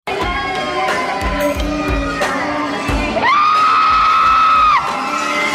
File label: PAGEANT GIRLS